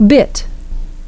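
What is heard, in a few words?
"bit", English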